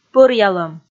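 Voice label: "пӧръялӧм", Komi, female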